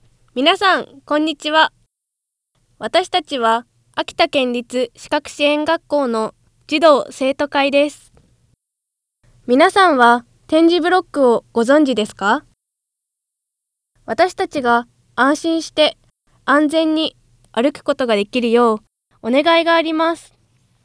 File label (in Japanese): 点字ブロック啓発活動アナウンス